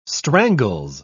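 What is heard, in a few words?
"strangles", British English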